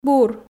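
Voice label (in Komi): "бур", Komi, female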